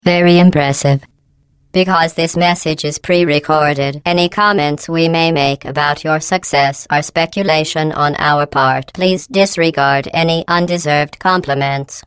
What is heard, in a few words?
Prerecorded message; GLAdos